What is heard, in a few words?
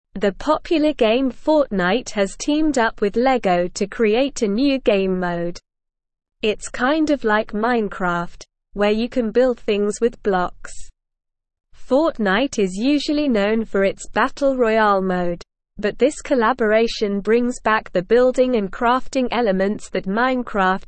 Upper Intermediate SLOW Reading, English; English Newsroom